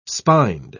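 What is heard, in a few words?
"spined", English, British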